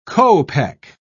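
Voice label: "kopek", British English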